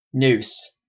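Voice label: "noose", Canadian English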